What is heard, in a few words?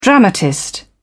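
"dramatist", British English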